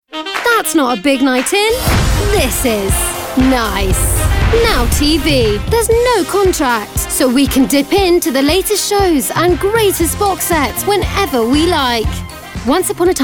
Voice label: Commercial